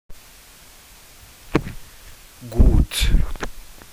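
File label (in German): "gut", German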